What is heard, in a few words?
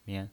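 "mian", pinyin voice, Mandarin Chinese, neutral tone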